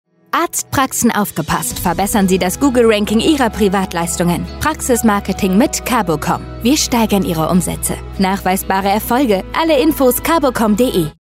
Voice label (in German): radiospot; antenne bayern